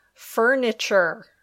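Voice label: "furniture", English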